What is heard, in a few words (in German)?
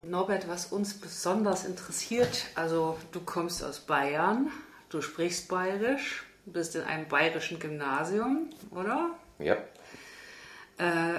Bairischer Dialekt